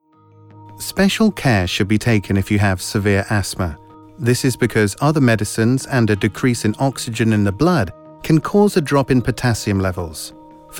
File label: Corporate eLearning, british elearning voiceover